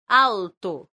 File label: "alto", esperanto; leciono1